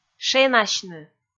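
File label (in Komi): "шенасьны", Komi, female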